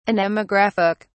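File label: "anemographic", British English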